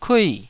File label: "kui4", taiwanese syllables